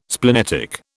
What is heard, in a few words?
"splenetic", British